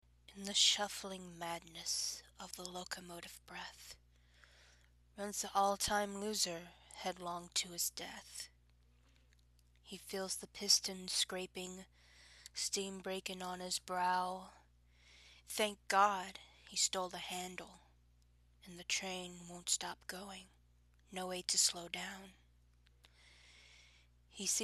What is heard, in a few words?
dramatic reading, F